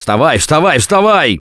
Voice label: Revive Friend Loud, left4dead2 russian, Survivor Voice; Gambler

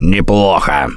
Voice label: Nice Job, left4dead2 russian, Survivor Voice

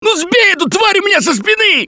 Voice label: grabbed by jockey, left4dead2 russian, Survivor Voice; Gambler

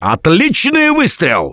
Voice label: Nice Shot, left4dead2 russian, Survivor Voice; Gambler

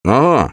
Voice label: Yes, left4dead2 russian, Survivor Voice; Gambler

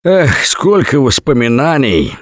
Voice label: take bat, left4dead2 russian, Survivor Voice; Gambler

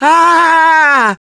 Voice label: Incapacitated Injury, left4dead2 russian, Survivor Voice; Gambler

